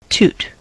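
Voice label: "toot", US English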